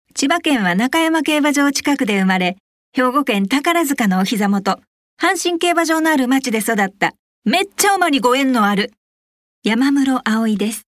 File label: female self introduction